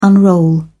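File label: "unroll", English, British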